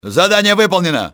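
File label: objective complete, russian soldier; XBOX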